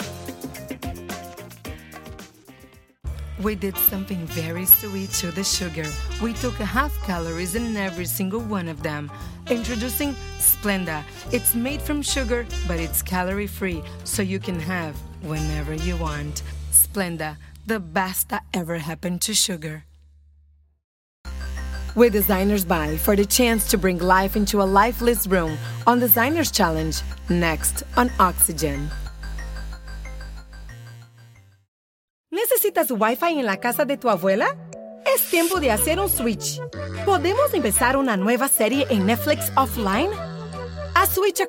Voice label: female neutral spanish latam english with latam accent promo compilation